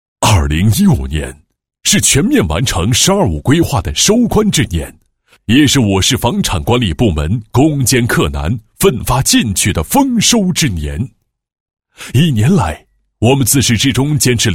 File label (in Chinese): 沉稳; 房产局绩效考核